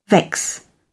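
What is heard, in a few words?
"vex", British English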